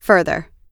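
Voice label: "further", US English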